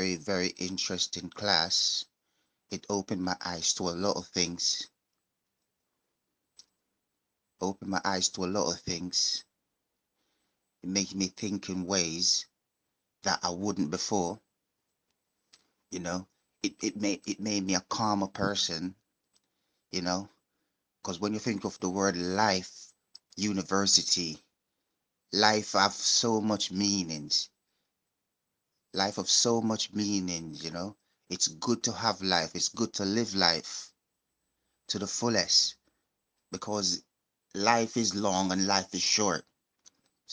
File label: last recorded voice message